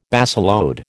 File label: "baseload", American